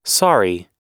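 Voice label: "sorry", US English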